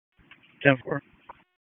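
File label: Dispatch 1, P25; WSO DISP 1; wake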